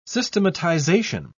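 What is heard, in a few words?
"systematisation", British English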